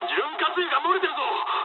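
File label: damaged oil mood med, speech japanese; War Thunder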